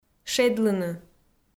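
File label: "шедлыны", Komi, female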